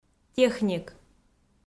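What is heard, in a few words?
"техник", Komi, female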